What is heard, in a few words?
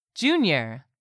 "junior", English; 3000 words